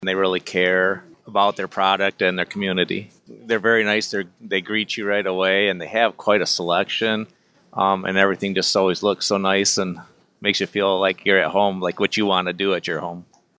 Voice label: Testimonial